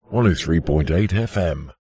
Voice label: radio ident voice male processed says fm; 103 8 fm; zapsplat